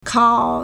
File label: "kao3", Chinese